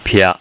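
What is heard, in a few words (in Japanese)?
"pya", Japanese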